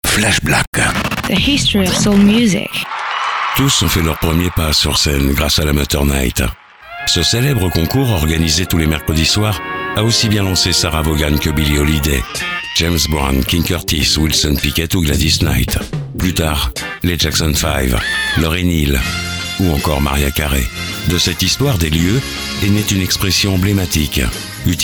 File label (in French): bande annonce; apollo